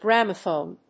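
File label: "gramophone", English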